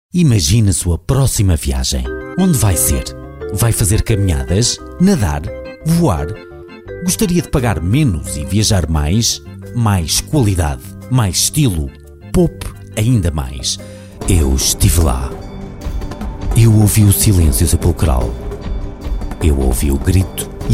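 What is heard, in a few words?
male portugal European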